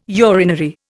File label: "urinary", Indian